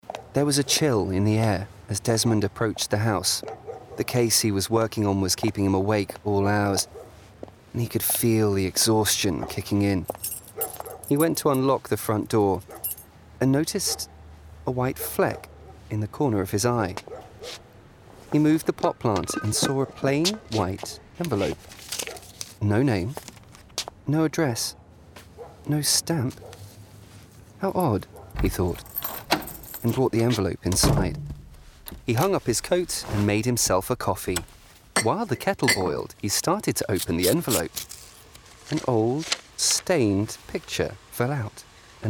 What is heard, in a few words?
Audiobook Thriller